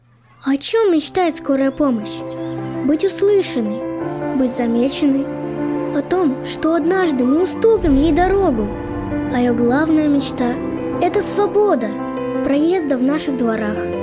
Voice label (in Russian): Detskiy golos